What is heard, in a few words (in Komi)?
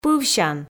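"пывсян", Komi, female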